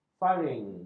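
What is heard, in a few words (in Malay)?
"faring", Malay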